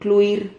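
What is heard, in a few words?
"cluir", Spanish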